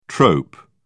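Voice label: "trope", British English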